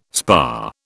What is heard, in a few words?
"spa", British